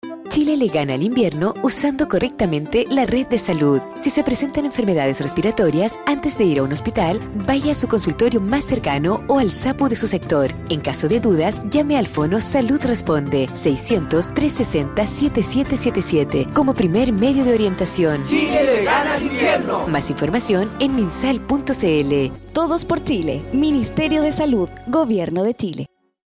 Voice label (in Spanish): FRASE RADIO; MINSAL RED SALUD